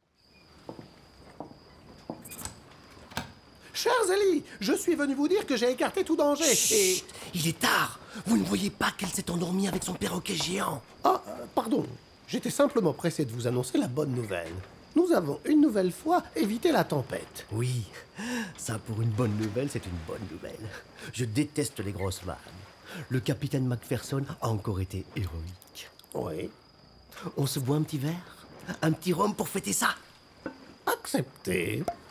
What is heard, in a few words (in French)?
Narration